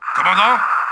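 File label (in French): "commandant", French; terran; marines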